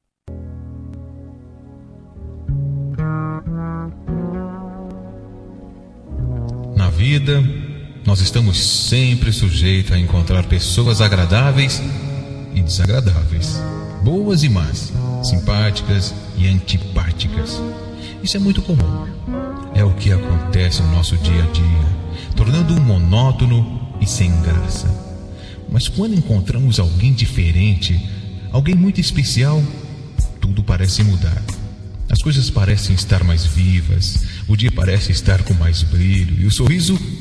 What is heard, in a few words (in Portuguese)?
Romântica Com Paquera